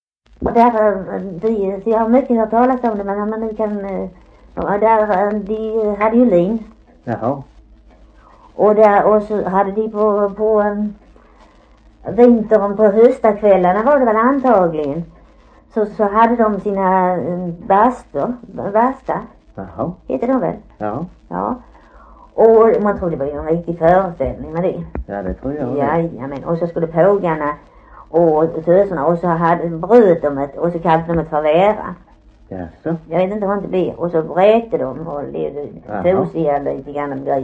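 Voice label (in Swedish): Harplinge Halland, dialekter; Folkminnen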